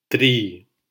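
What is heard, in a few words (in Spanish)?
"tri" Spanish syllable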